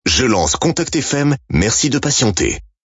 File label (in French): lancement radio; contactfm